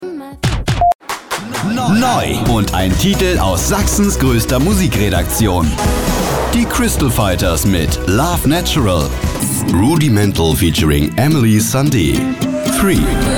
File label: Medley Radio